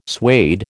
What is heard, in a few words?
"suede", American